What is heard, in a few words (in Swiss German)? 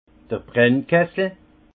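"brannkessel", Alsace Haut Rhin; vin; alambic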